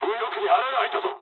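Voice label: right wing mood low, speech japanese; War Thunder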